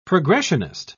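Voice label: "progressionist", British English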